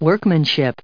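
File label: "workmanship", English, US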